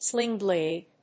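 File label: "Sling Blade", English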